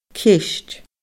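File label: "ceist", Scottish Gaelic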